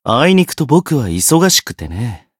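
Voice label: Voice Japanese; zeviro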